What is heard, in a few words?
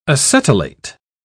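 "acetylate", British English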